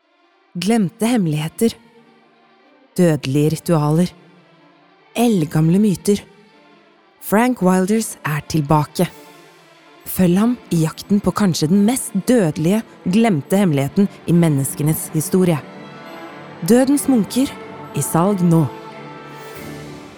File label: no movie trailer